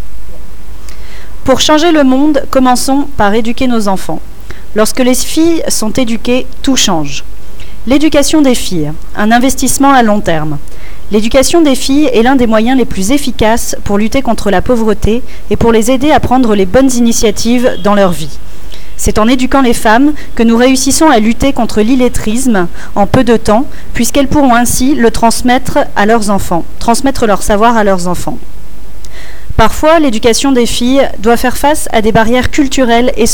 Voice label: girl french